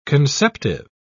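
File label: "conceptive", British English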